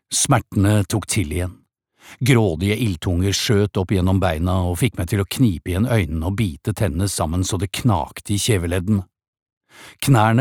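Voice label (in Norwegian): lydbok, Norwegian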